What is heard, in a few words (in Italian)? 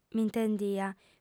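"m'intendia", lingua sarda, fem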